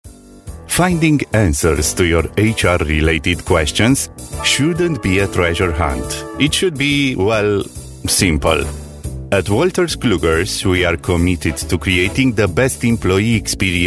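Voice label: romanian voice english, Smooth Velvety Romanian Male Voice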